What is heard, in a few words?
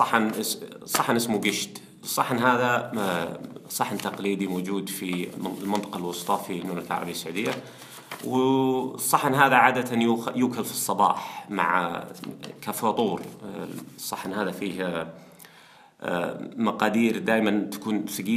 Saudi Recipe, Arabic; Manchester